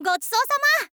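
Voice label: "gochisousama", Japanese, girl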